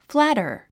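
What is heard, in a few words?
"flatter", 英単語 発音; flǽtə r フラァタァ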